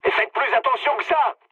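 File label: French player friendly fire mood med; War Thunder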